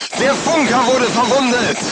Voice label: voice message machine gunner wounded, crew tanks german